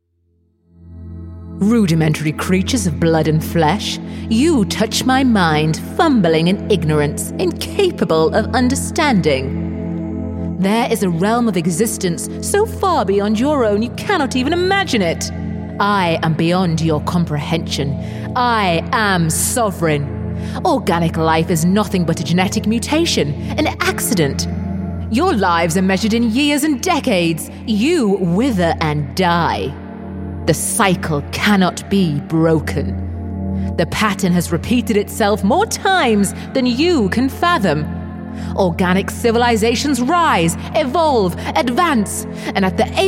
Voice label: northern voice video game

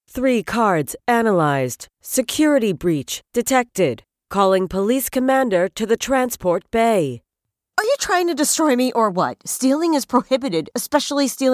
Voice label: American voice video game

